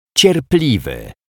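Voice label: "cierpliwy", Polish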